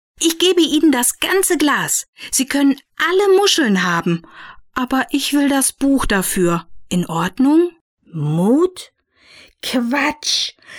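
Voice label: German female voice over, character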